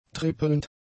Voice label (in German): "trippelnd", German; adjectives